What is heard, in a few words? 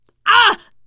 horde attack, teen girl, survivor voice